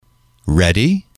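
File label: "ready", English, male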